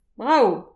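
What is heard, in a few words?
"brav", Breton; petit precis de prononciation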